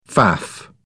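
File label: "faff", British English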